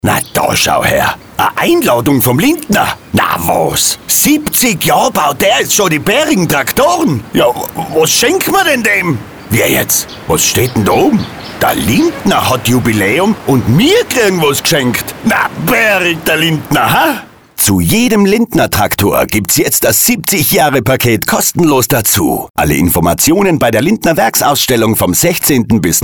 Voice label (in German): Radiospot; Lindner; für ACC Werbeagentur; Frühling